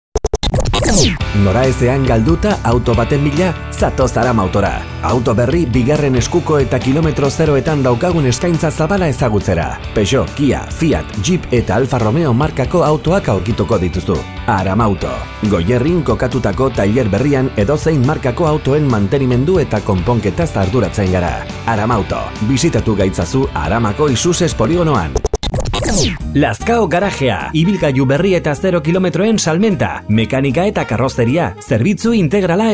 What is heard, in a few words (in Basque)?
todojingles eusk